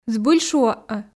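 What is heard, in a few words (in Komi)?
збыль шуӧ-ӧ, Komi, female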